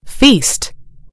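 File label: "feast", British English; gcompris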